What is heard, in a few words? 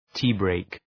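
"tea break", English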